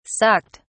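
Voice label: "sucked", British English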